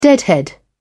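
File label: "deadhead", en, British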